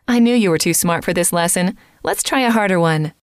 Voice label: I knew you were too smart for this lesson, F